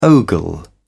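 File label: "ogle", British English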